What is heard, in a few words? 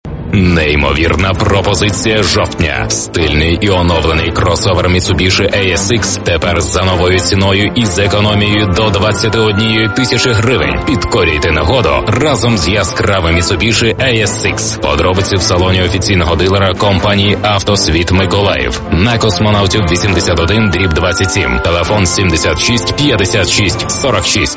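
Ukrainian, male, commercial; voice-over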